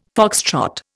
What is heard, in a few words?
"foxtrot", Indian